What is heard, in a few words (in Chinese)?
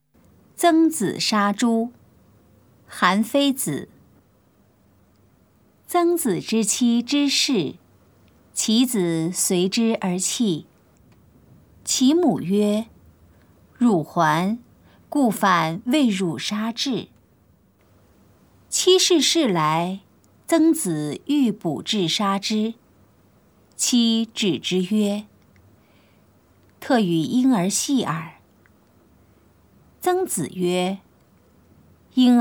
putonghua; 曾子殺豬; 普通話